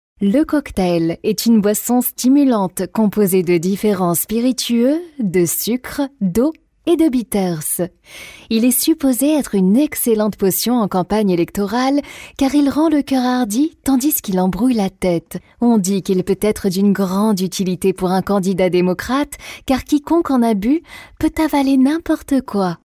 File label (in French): audioguide joue manoir mysterieux 1930